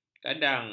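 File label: "kadang", Malay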